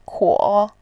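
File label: "kuo3", Mandarin Chinese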